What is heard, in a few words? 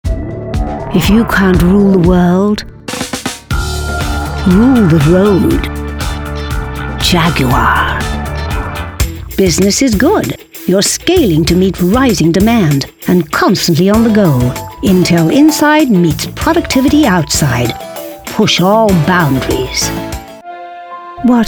Conversational Mix